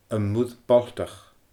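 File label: "Am Muir Baltach", Scottish Gaelic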